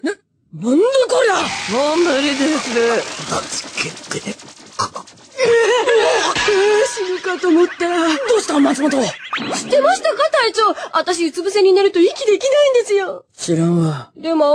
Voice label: Drunk